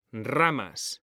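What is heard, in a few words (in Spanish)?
"RAMAS", Spanish; mekanta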